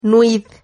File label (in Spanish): "nuid", Silabas